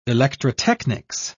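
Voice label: "electrotechnics", British English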